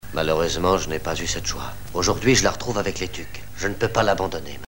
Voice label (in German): Hörprobe des franzäsischen Synchronschauspielers; Hier ist Lex französisch synchronsisiert worden; Lex Barker